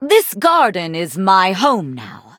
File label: loading, en; cookie run